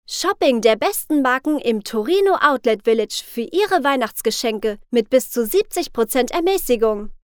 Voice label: german commercial

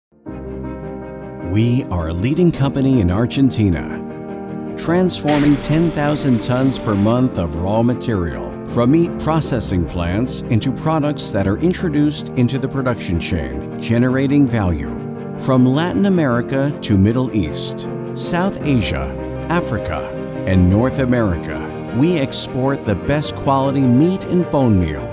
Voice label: english american corporate videos

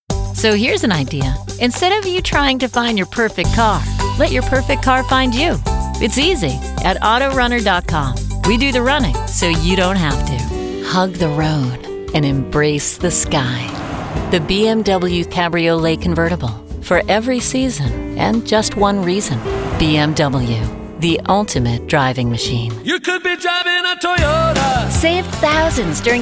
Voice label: english american automotive

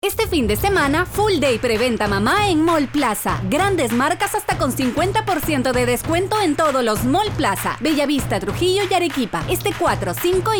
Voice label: spanish latin american promos

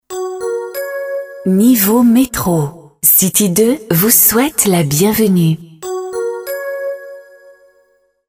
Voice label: french

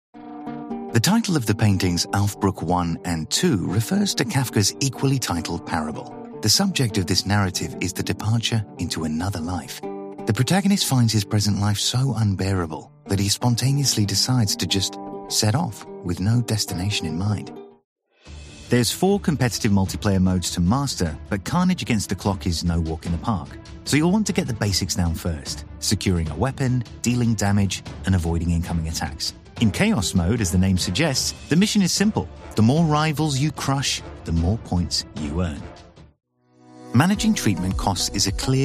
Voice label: english british e-learning

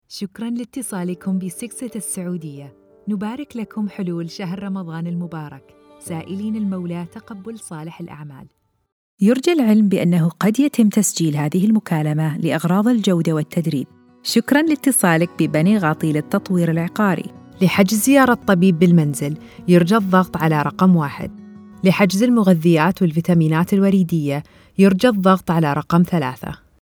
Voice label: arabic ivr

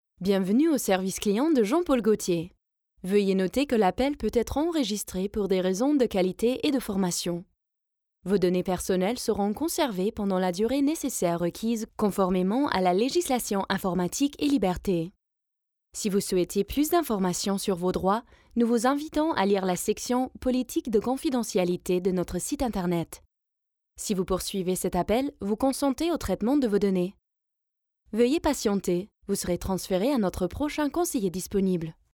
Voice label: French ivr

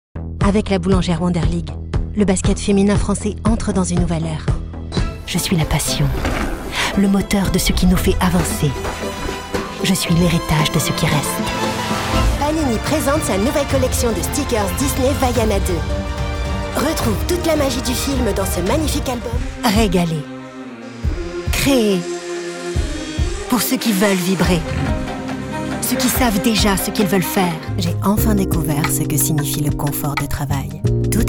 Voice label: french commercial, sensual voice actors; French voice over actress native from France, neutral accent

